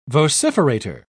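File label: "vociferator", British English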